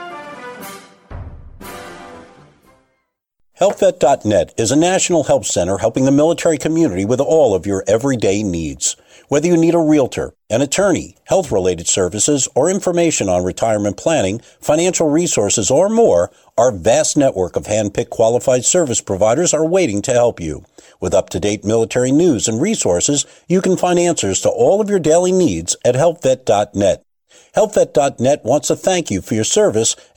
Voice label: COMMERCIAL; Veterans Crisis Line